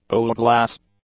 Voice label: "owlglass", English

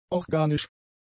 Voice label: "organisch", German; adjectives

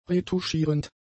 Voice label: "retuschierend", German; adjectives

